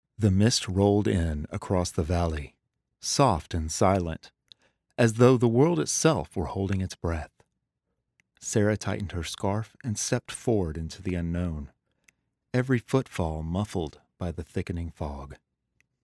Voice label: Audiobook Excerpt